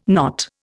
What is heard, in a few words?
"not", Indian